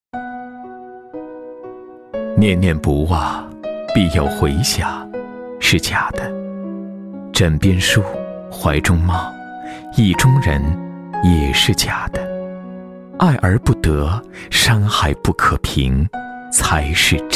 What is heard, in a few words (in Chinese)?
伤感文案